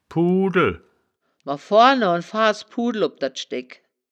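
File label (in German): "pudel", wittlicher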